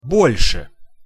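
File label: "bol se", Russian pronunciation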